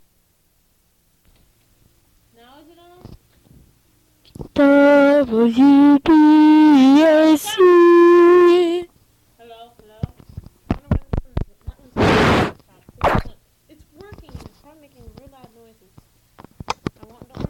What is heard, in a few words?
arguing with sibling